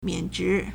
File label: "mian3zhi2", Chinese